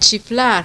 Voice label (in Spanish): "chiflar", Spanish